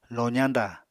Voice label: "lozañdà", Apatani